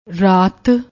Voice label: "raat", Punjabi